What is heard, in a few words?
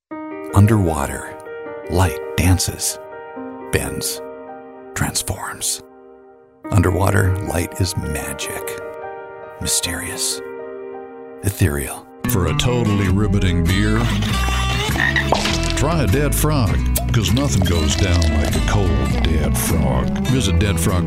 commercial vo